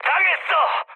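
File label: Korean aircraft destroyed mood high, speech; War Thunder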